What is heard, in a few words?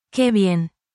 spanish, spanish phrases